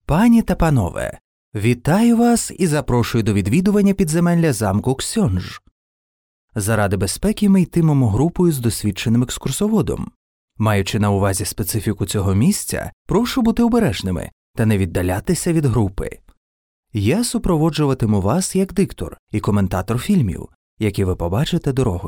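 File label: Ukrainian, male, audio guide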